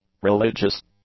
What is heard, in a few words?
"religious", English; adjectives